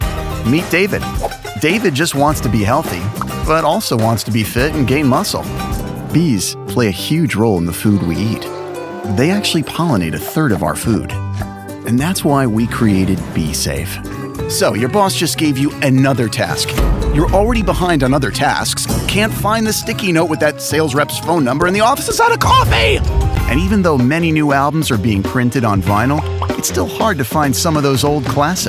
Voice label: Explainer Video